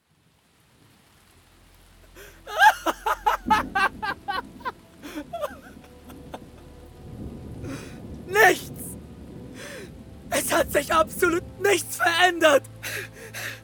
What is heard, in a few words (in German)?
Hörspiel Trauer Verlust; Gebrochener Glaube